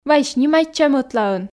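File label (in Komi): вай снимайтчам ӧтлаын, Komi, female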